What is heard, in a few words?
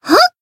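Voice attack Japanese; leviachan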